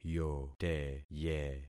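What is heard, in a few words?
"yoteye", concatenated syllables; Affixing experiment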